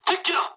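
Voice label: Japanese enemy detected mood low; War Thunder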